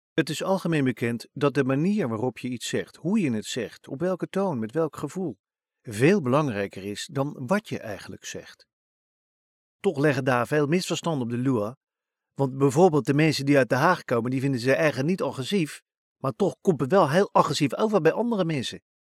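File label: Dutch, Netherlands, male, voice over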